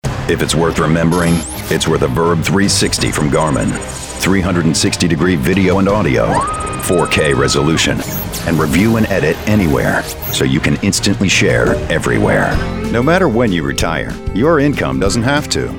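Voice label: commercial, voice actor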